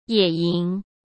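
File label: "野营", Mandarin Chinese; chinese flashcards; hsk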